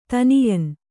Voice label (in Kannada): "ತನಿಯನ್", kannada; taniyan; (Noun)